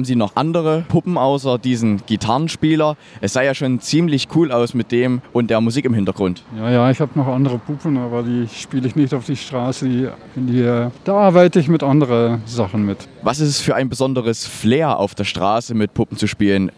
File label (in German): Radiobeitrag Umfrage Straßenkünstler